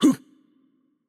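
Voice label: Japanese attack light voice; bloom minigame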